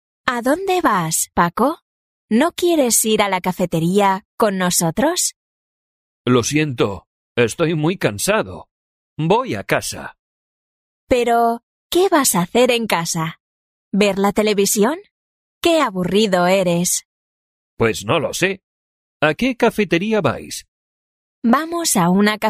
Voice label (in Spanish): leccion dialogo